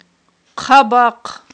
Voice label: "хъабахъ", Aghul

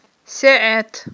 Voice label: "сеIэт", Aghul

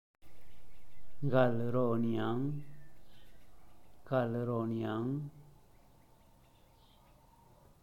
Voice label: "gal roniang", zapoteco teotitlan

